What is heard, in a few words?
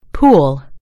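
"pool", British English; gcompris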